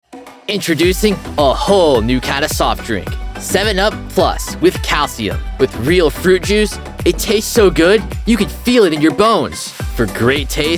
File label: Commercial, Male voices